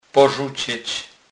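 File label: "porzucec", Polish, Kurpie dialect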